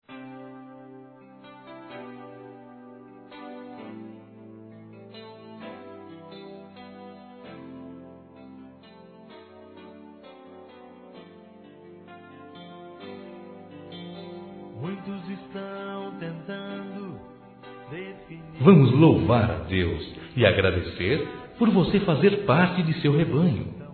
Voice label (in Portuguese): Otimismo Para Amiga Masculino; Telemensagens